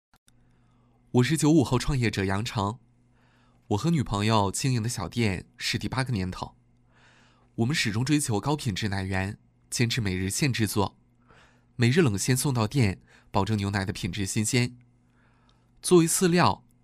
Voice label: 男 自述旁白 年轻 自然独白; 95后创业者; 年轻活力

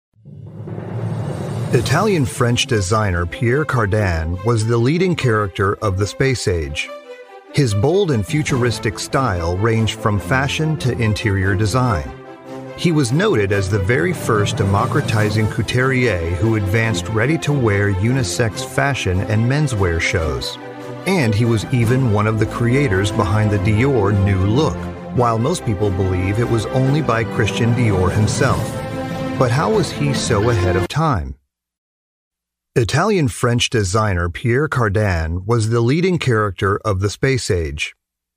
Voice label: 美式 高端大气

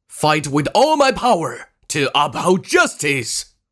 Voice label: 男 英文配音角色; 中英双语 科技感; 手游; 手游英魂之刃 神晖狂刀-张飞

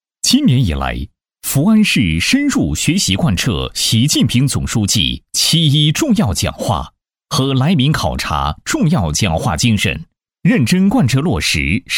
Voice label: 男 党建宣传 大气明亮; 男180-正气明亮; 大气浑厚

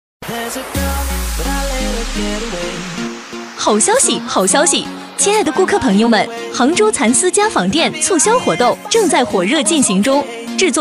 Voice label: 活力女 丝绸家纺被; 激情力度; 杭州

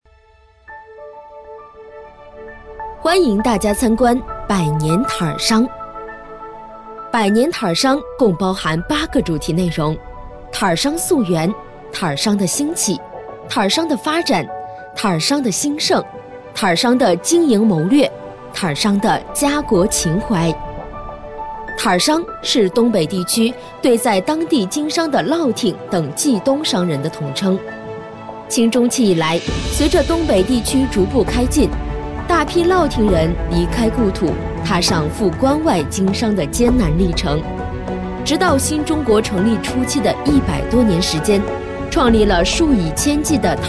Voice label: 女 博物馆解说 展览语音解说; 甜美亲切; 百年呔商

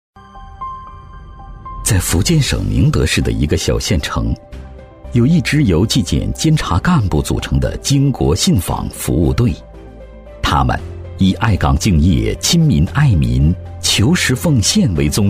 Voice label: 男 政府宣传 记录纪实; 信访服务队; 高端内涵; 大气浑厚; 政府宣传《信访服务队》-记录纪实

